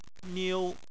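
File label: "niu" first tone, Chinese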